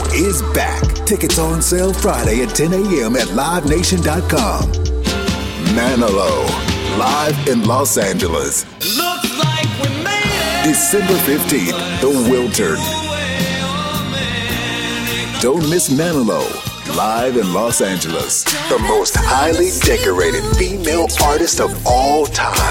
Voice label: Promo Concert Tour